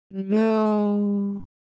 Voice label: Ewe Color Visual, ideophones

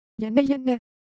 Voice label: Japanese, Ideo Phones; Shape

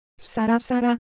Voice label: Japanese Texture, Ideo Phones

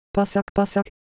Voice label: Korean Texture, ideophones

